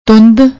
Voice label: "toond", Punjabi; ਤੁੰਦ

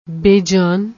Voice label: "bejaan", Punjabi

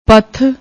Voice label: "path", Punjabi

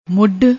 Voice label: "moodh", Punjabi

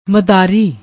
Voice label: "madaari", Punjabi; ਮਦਾਰੀ

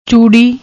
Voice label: "choodi", Punjabi; ਚੂੜੀ

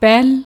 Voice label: "pehal", Punjabi

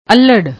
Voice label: "aular", Punjabi; ਅੱਲ੍ਹੜ

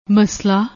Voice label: "masla", Punjabi; ਮਸਲਾ

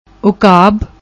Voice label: "Uukab", Punjabi

